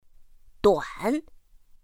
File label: "duǎn", pinyin; pinyin2; pinyinji